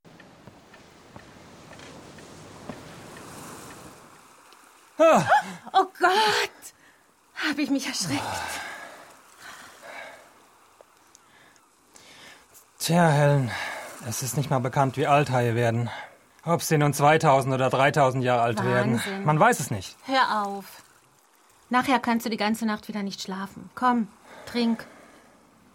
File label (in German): Synchro Realfilm